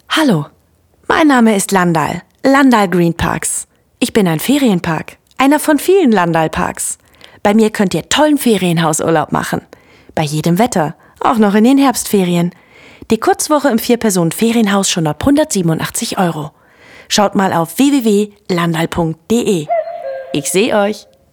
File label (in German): Radiospot; Landal